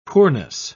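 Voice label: "poorness", British English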